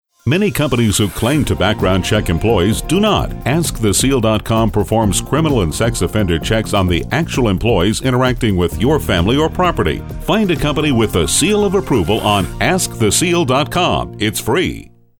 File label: Radio